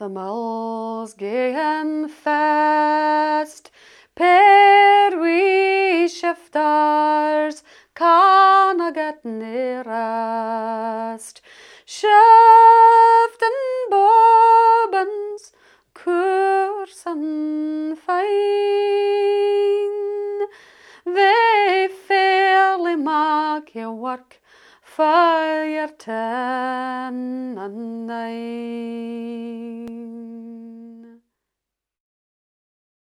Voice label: Dundee, scotspeak